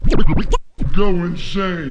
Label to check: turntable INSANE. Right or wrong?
Right